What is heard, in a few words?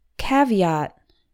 "caveat", English